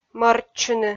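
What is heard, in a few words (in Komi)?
"мӧрччыны", Komi, female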